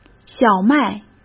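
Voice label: "小麦", Mandarin Chinese; chinese flashcards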